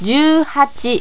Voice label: "juuhachi", japanese